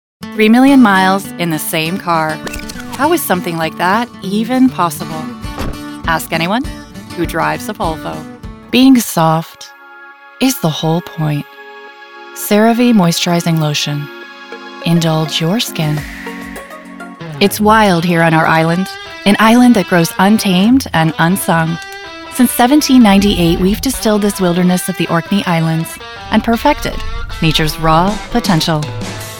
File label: COMMERCIAL